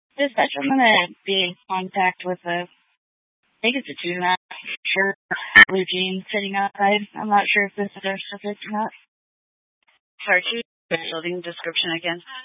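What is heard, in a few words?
Incident Tac 2, Scanner audio recording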